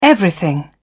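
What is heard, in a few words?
"everything", British English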